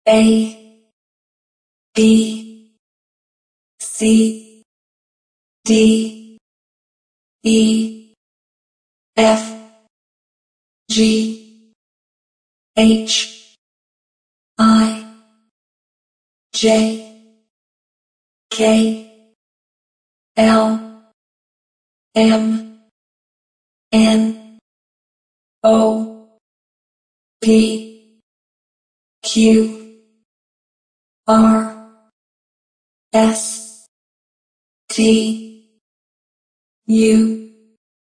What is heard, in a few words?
Alphabet Computer, Computer voice, alphabet, A-Zed, speaking; Computers; Electronics; human voice